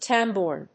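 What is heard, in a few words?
"tambourin", English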